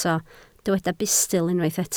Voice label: "bustl", Welsh

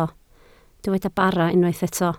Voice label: "bara", Welsh

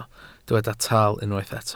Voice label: "tal", welsh

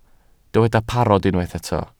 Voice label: "parod", Welsh

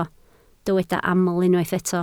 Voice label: "aml", Welsh

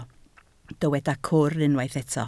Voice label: "cwr", Welsh

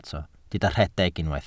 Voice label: "rhedeg", Welsh

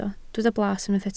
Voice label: "blas", Welsh

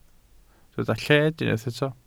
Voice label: "lled", welsh

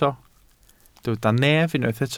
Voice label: "nef", Welsh

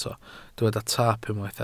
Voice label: "taap", Welsh

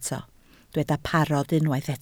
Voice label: "parod", Welsh

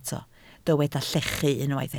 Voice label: "llechu", Welsh

